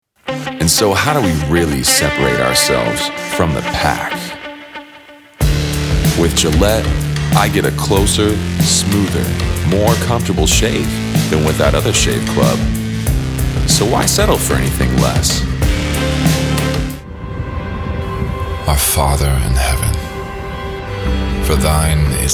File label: Voiceover Commercial Narrative